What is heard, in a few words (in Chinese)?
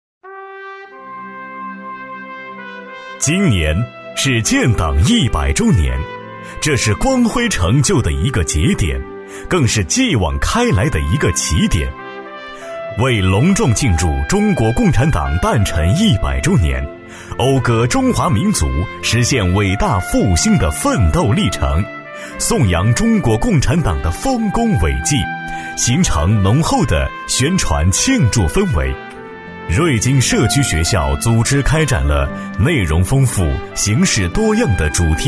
男 学校党建 大气厚重; 男B1-大气沉稳; 《瑞金社区学校》